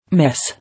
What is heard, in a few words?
"Miss", English